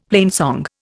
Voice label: "plainsong", Indian; Dictionary